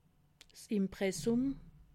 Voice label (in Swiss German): "impressum", alsace